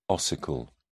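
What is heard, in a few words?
"ossicle", British English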